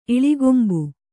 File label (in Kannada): "ಇಳಿಗೊಂಬು", Kannada